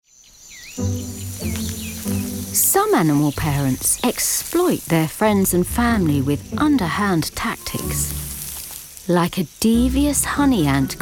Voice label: Doc Narra